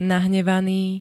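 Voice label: "nahnevaný", Slovak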